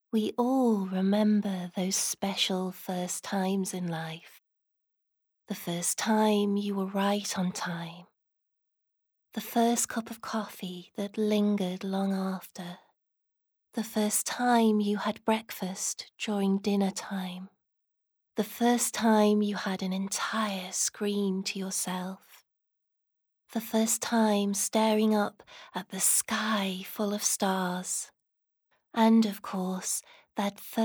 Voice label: Commercial, voiceover